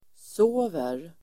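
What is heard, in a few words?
"sover", Swedish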